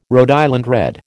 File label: "Rhode Island Red", American